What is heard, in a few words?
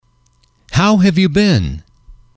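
"how have you been", English, male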